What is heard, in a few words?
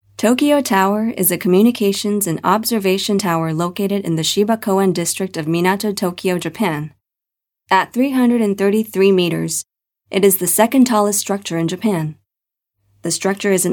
US English VO Straight, English US Female